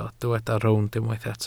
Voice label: "rownd", Welsh

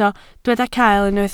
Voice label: "cael", Welsh

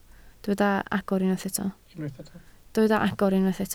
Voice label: "agor", Welsh

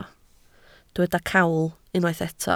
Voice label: "cawl", Welsh

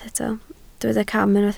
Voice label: "cam", Welsh

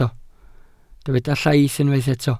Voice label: "llaith", Welsh

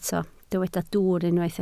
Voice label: "dwwr", Welsh

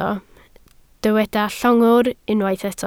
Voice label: "llongwr", Welsh

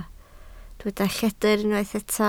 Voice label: "lledr", Welsh

